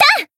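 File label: attack, Japanese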